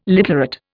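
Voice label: "literate", Indian English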